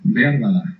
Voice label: "maybe", Basque